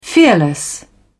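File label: "fearless", British English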